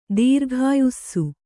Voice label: "ದೀರ್ಘಾಯುಸ್ಸು", Kannada

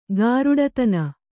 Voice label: "ಗಾರುಡತನ", Kannada; gāruḍatana; noun; = ಗಾರುಡ - 4.

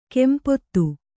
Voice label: "ಕಂಪಿತ", kannada

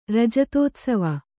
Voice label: "ರಜತೋತ್ಸವ", Kannada; rajatōtsava; noun; silver jubilee.

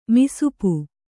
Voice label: "ಮಸೆಪು", Kannada

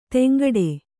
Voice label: "ಧಿಂಕಿಡು", kannada

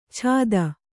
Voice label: "ಛಾದ", Kannada

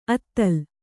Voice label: "ಅತಲ", Kannada

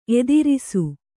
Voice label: "ಎದುರಿಸು", Kannada

